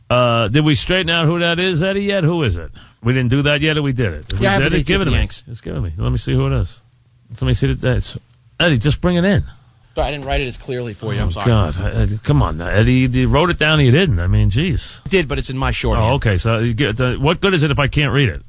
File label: Yells; WFAN